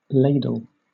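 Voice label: "ladle", British English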